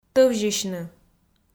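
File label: "тӧвзьысьны", Komi, female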